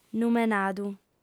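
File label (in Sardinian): "numenadu", female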